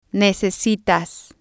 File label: "necesitas", spanish